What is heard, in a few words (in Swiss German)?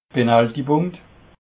"penaltypunkt", alsace